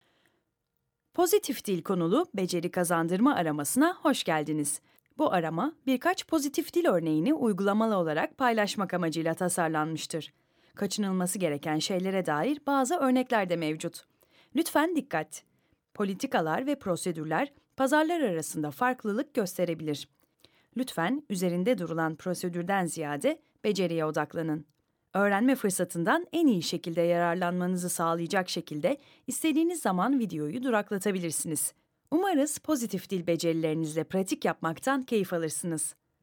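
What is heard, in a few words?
Turkish, e-learning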